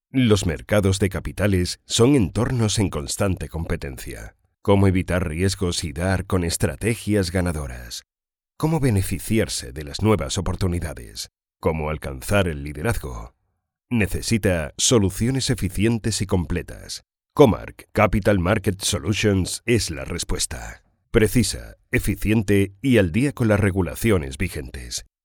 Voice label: male spanish corporativo; dictor

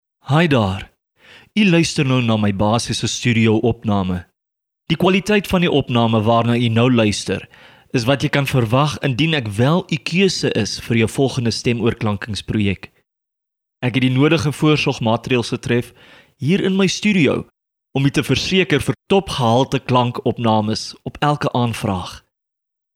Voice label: afrikaans; dictor

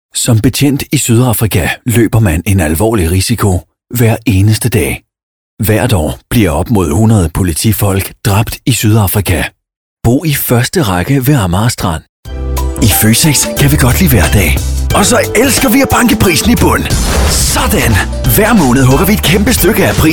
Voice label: danish male; dictor

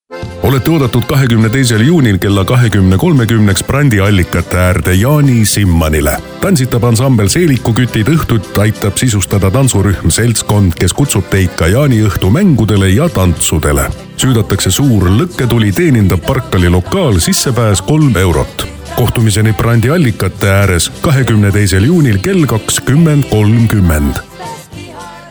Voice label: estonian male; dictor

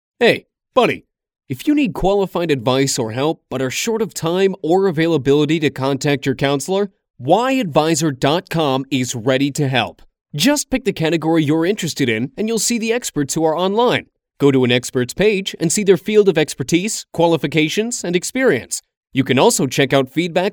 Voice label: american male; dictor

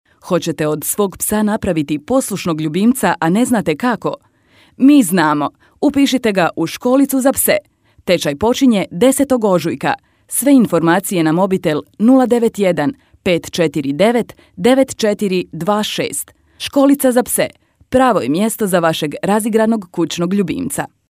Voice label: croatian female; dictor

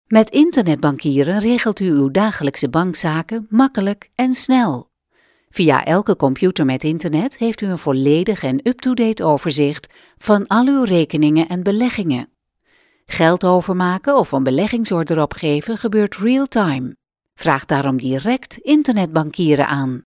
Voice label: dutch female; dictor

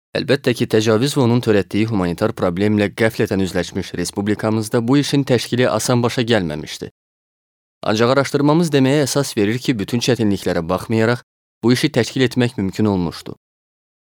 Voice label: azeri male; dictor

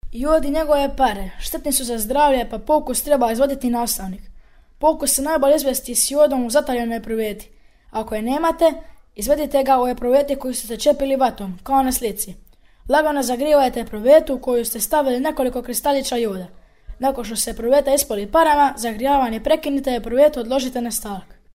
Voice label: croatian boy; dictor

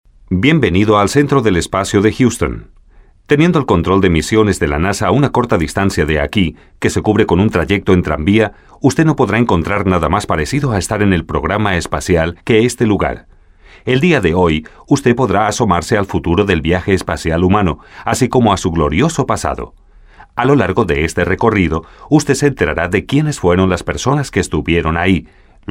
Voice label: spanish la male

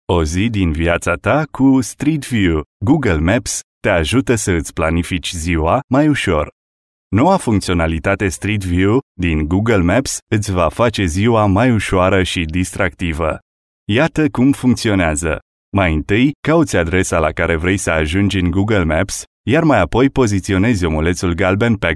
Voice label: romanian male

